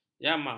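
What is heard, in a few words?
"jama", Malay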